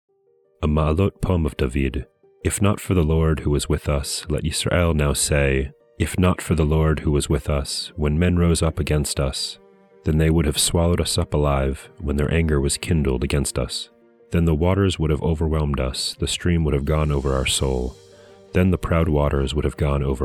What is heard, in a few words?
poetry and prayer psalm english